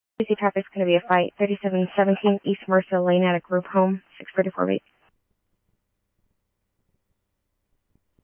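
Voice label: scanner, Phoenix Police Department; RWC; Thompson Peak High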